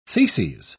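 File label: "theses", British English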